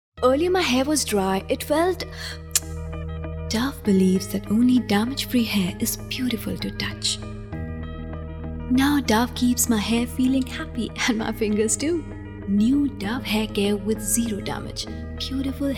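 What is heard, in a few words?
Hindi English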